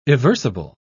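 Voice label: "eversible", British English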